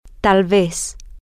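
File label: maybe, Spanish, female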